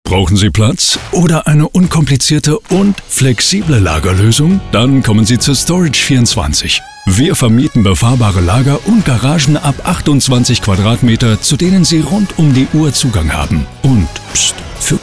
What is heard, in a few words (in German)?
Hoerprobe Werbung; Storage24